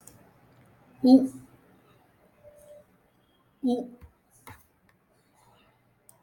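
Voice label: u (the short u, as in 'put'), Tamil, vowels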